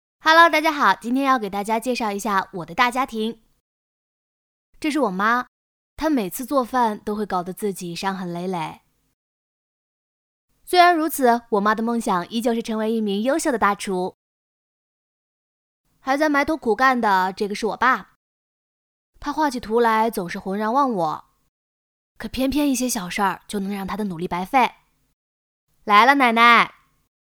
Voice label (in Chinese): 女 自然说话 抖音风格 素人